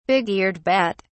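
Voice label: "big-eared bat", English, British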